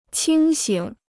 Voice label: "清醒", Chinese

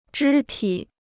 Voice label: "肢体", Chinese

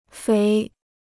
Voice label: "肥", Chinese

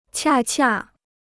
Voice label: "恰恰", Chinese

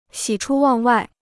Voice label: "喜出望外", Chinese

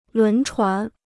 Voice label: "轮船", Chinese

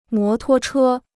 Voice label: "摩托车", Chinese

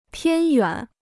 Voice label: "偏远", Chinese

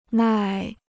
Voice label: "耐", Chinese

